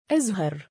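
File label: "2azhar" male, Arabic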